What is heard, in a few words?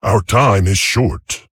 Male Tauren Time